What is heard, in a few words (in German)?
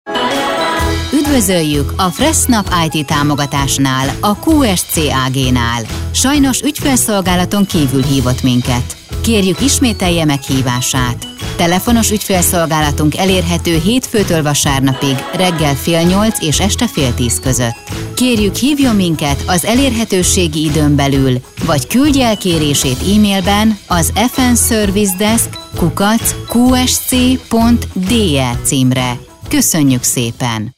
telefonansagen sprecher hun; Native Speaker